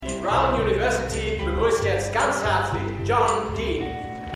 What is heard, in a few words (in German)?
Ansager; White House Plumbers